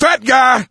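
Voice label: warn boomer, voice, male; Left 4 Dead 2; coach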